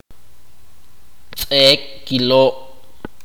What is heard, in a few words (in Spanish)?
pocos, itza